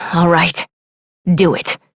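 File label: Voice, English; New War; Orbiter; Operator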